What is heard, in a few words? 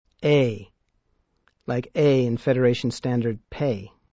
Klingon sounds ey, Sound ey, as in pay